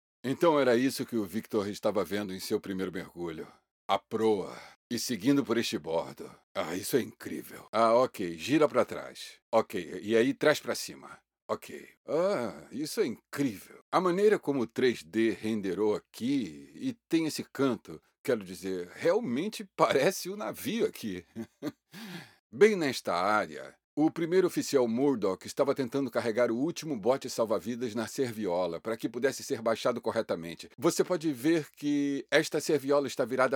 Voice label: portekizce erkek brezilya; portekizce seslendirme; erkek sesler

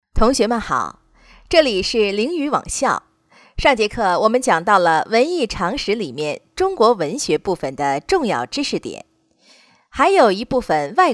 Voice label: Cince Kadin Mandarin Cin Simplified, seslendirme; pekin

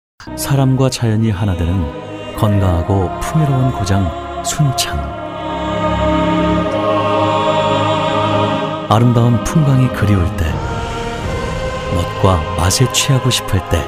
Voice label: Korece Erkek; seslendirme; erkek sesler

